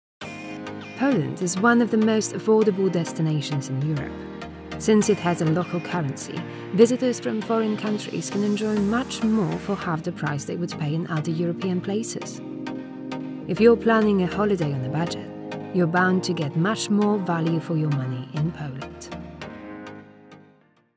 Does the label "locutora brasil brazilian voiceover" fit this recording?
No